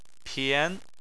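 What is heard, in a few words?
"pian" second tone, Chinese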